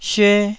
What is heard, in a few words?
"syue1", taiwanese Syllables